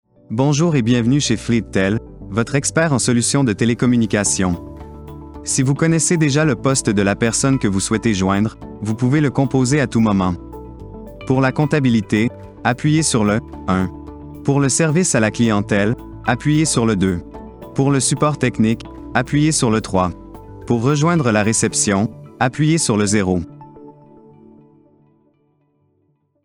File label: ORIGINAL VOICE MUSIC